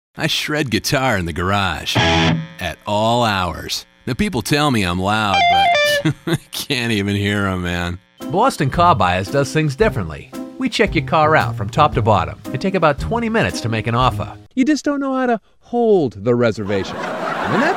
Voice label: Character